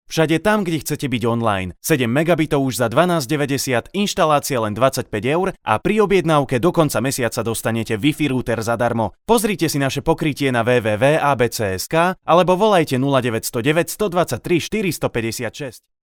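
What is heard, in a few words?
Slovak, male, commercial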